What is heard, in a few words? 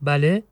male yes, persian